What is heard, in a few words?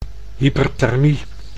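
"hyperthermie", Dutch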